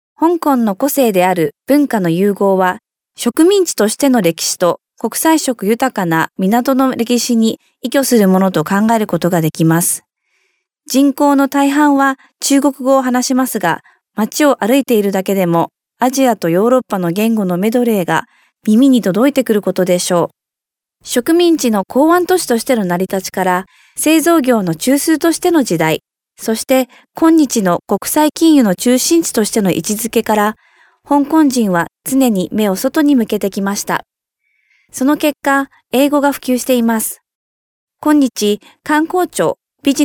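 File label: Japanese female corporate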